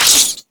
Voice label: Zombie